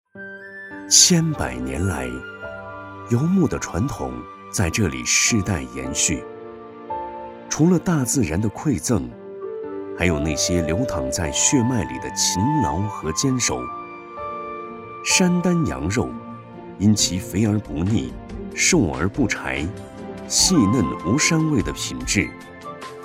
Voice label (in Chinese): 纪录片, 羊肉; 舌尖; 山丹羊肉